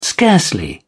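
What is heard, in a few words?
"scarcely", British English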